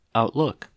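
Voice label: "outlook", US English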